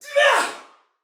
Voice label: Attack Heavy Female; Dialog; Barks; Battle; Sinecure